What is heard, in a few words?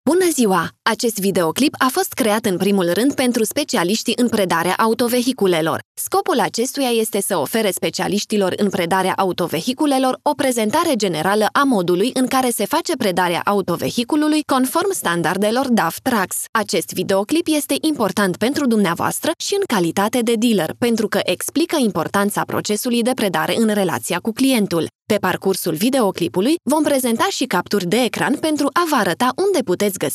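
Romanian, female, corporate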